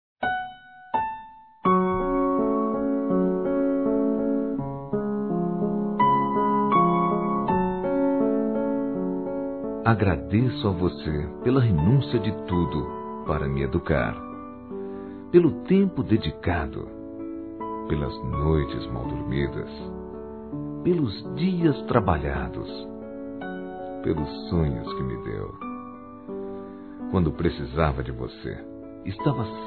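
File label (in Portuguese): HOMENAGEM A MÃE, male, Telemensagens Dia das Mães; Masc; Telemensagens 2017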